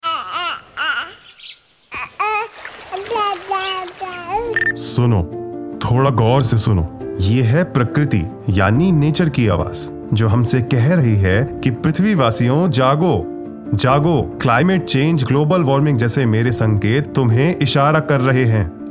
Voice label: mission life umbrella theme voice promo; PRAKRITI; radio4child awards 2024